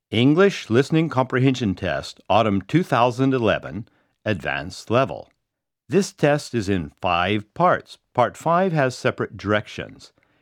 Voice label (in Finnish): Englanti pitkä kuuntelukoe äänite syksy; Engelska lång hörförståelseprov inspelning hösten